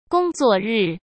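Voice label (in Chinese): "工作日", Mandarin Chinese; hsk; chinese-flashcards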